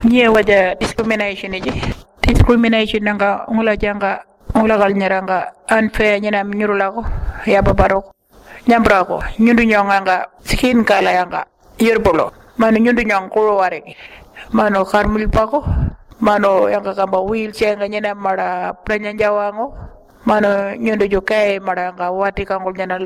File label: warlpiri